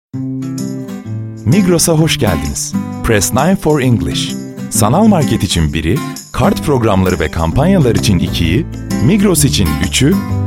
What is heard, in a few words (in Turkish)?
santral, santral seslendirme; Profesyonel Santral Seslendirme; BiberSA Prodüksiyon; santral anons